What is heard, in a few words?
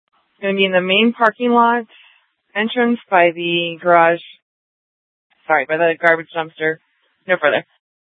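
Scottsdale PD North Dispatch; Nth Dispatch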